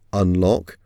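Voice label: "unlock", English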